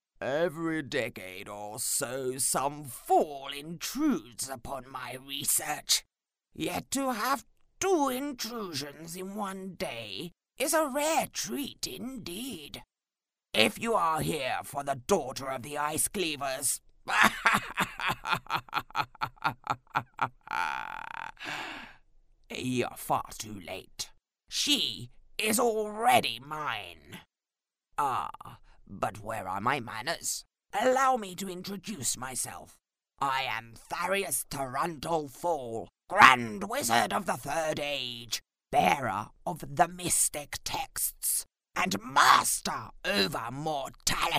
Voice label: CHARACTER VOICE ONLY